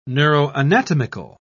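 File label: "neuroanatomical", British English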